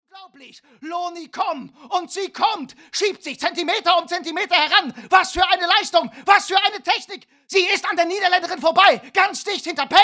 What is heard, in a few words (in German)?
Sportkommentator